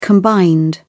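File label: "combined", British English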